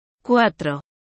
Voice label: "Quatro" spanish

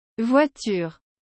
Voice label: "Voiture", french

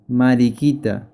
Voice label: Ladybug spanish

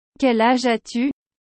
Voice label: Quel age as tu french

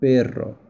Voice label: Dog spanish

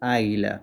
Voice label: Eagle spanish

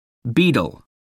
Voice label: "Beetle" english

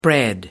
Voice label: "Bread" english

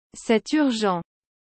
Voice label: C'est urgent french

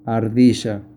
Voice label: Squirrel, spanish

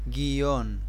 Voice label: spanish

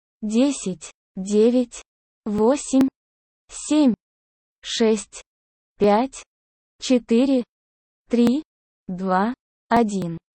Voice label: Ten to one russian

